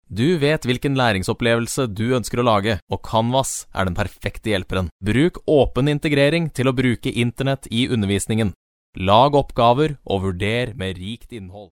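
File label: Norwegian, male, narration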